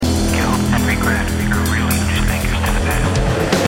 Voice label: english